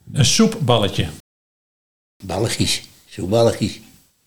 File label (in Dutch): "ballegie Soepballegies", Nieuw lekkerlands dialect; (soep-) balletje